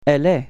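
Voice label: "elle est", French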